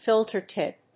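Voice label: "filter tip", English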